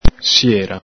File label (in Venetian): "siera", Veneto